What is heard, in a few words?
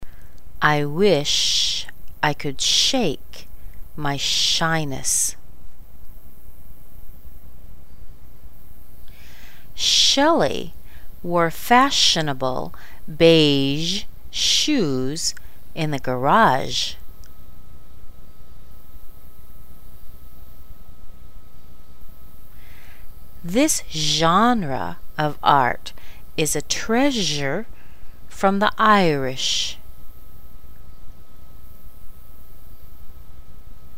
SH ZH sentences slow, American accent